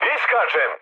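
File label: Serbian bailout mood high, speech; War Thunder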